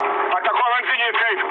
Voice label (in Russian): damaged aa, mood low, speech ru; War Thunder